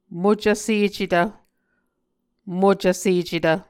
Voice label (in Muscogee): "mocvsecetv", Muscogee; Mvskoke Words with Voicing Consonants; Martin, Jack B., and Margaret McKane Mauldin. 2023. A Dictionary of Creek/Muskogee; SIL International